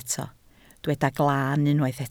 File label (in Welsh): "glan", Welsh